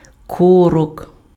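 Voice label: "корок", Ukrainian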